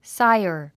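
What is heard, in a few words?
"sire", English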